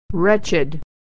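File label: "wretched", American English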